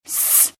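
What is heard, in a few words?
"siin", Arabic letter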